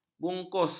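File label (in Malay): "bungkus", Malay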